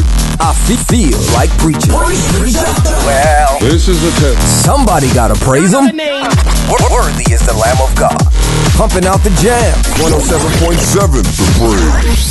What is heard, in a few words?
gospel radio drops